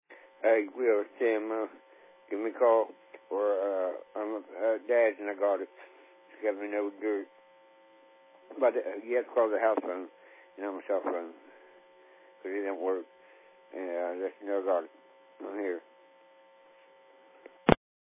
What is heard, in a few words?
Voicemail